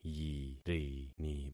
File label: "yirini", concat syllables; Affixing experiment